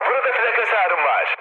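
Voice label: damaged aa, mood high, Turkish; speech; War Thunder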